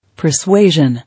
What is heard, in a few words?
"Persuasion", English